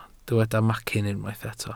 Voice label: "macyn", welsh